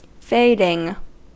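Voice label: "fading", American English; pronunciations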